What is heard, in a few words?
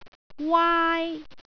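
"waai1", Cantonese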